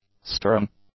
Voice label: "stirring", English; adjectives